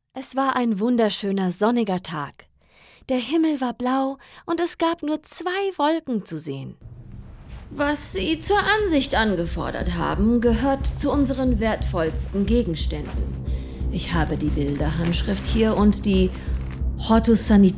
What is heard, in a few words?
German Fvo